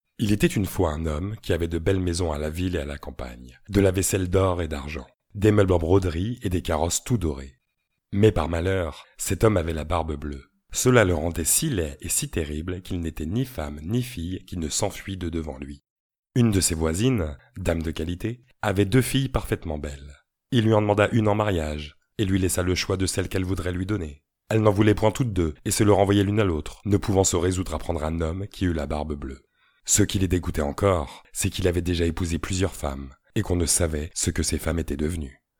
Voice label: narration

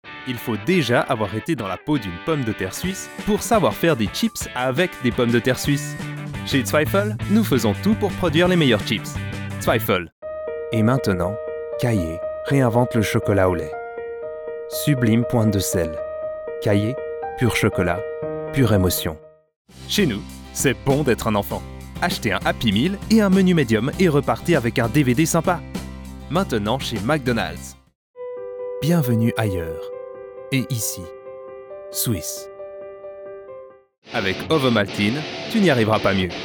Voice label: Commercials Swiss French With Music

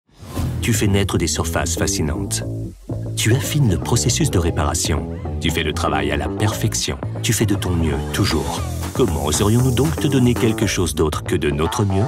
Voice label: commercial; JETX ADAM X

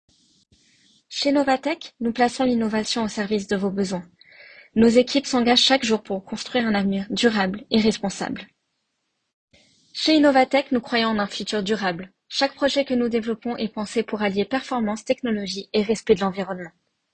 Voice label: corporate

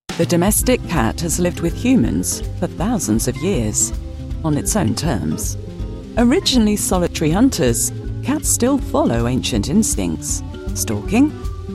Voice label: Documentary

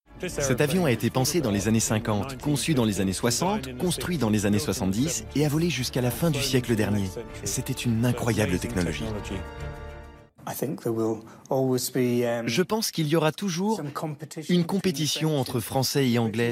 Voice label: Voice Over; HISTOIRE CONCORDE

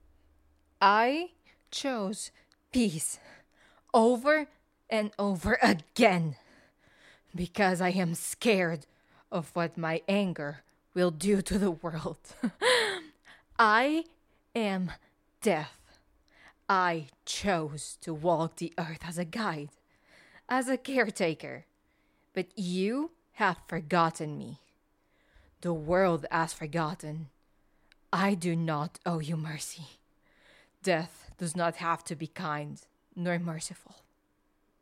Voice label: Character

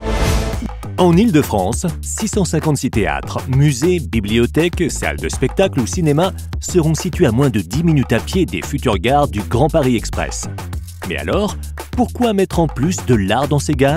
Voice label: corporate; grand paris express

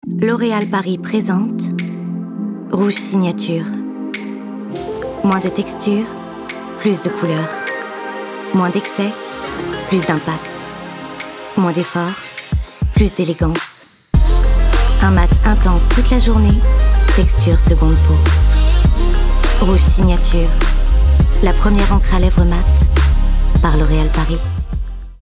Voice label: ADVERTISING

